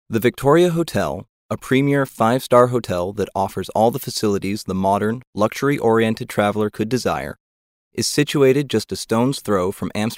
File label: voice over